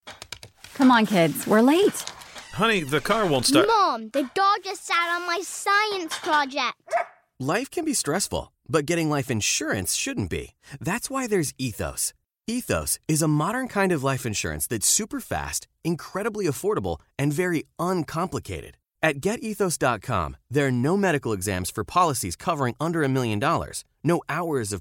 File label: vo mom kid vo radio spot